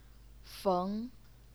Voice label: "feng2", chinese pinyin